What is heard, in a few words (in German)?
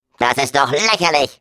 Defective Turret defect fail, de; sp sabotage factory